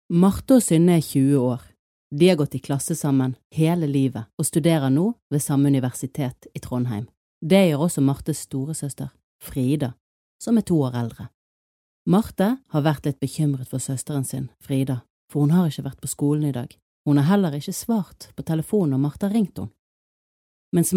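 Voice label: Norwegian Norway female narrative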